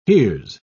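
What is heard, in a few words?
"here's", British English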